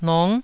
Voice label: "nong9", Taiwanese

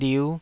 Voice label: "liu5", Taiwanese syllable

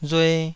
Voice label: "rue2", taiwanese syllable

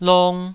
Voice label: "long2", Taiwanese syllable

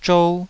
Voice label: "zhou2", taiwanese

